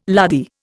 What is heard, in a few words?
"lardy", Indian